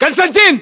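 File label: "konstantin", battlechatter, Russian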